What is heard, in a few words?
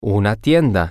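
a Store, Spanish, male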